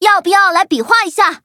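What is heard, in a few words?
touch, Traditional Chinese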